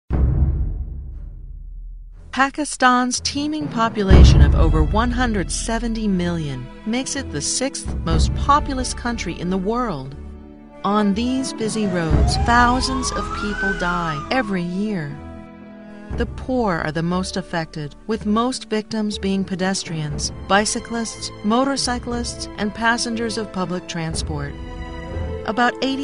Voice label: Narration